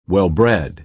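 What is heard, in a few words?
"well-bred", American English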